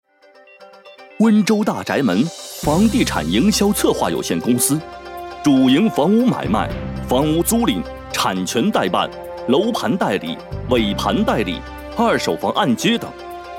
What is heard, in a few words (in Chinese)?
【广告】服装批发城, 男国语, 磁性, 明亮